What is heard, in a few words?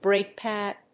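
"Brake pad", English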